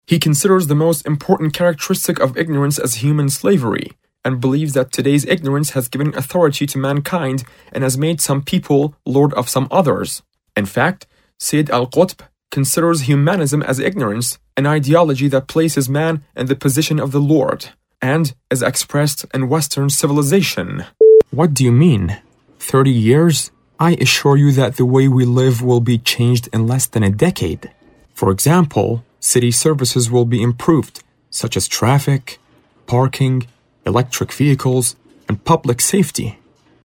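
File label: English Male; zaban kharjeh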